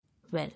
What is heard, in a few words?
"Well", English